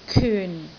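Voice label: "kyun4", Cantonese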